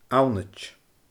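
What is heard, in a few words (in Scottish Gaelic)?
"annaid", Scottish Gaelic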